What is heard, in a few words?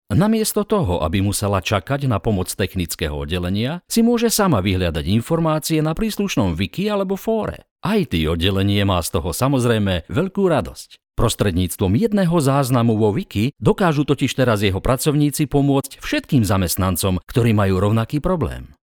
slovak m narration; voice demos